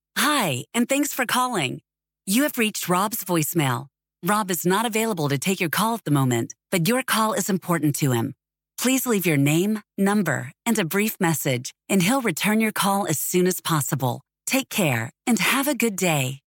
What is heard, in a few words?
voicemail